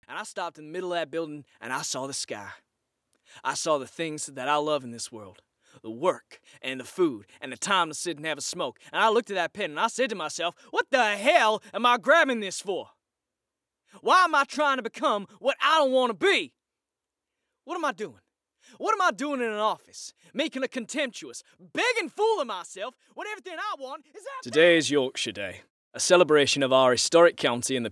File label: Accent; VOICEFOX